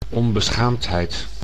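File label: "onbeschaamdheid", Dutch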